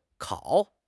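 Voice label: "kao3", Chinese; 汉语口语新时代-初级下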